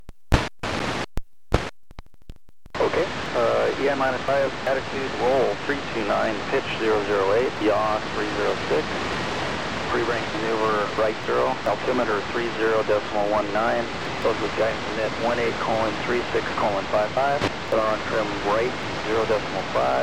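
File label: landing, Space Shuttle Recordings; sts133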